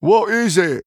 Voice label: Male Human Play

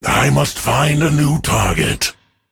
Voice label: Male Drust Emote ERROR TARGET

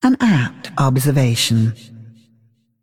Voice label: Female Dragon

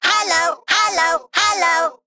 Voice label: Male Mech HAPPY HALLOWEEN

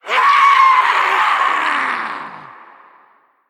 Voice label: Female Night Elf Play

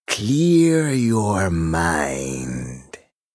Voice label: Male Troll Play

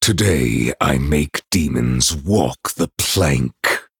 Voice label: Male Night Elf PIRATE DAY

